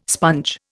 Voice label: "sponge", Indian

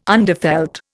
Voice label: "underfelt", Indian

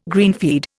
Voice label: "greenfeed", Indian

